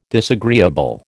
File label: "disagreeable", American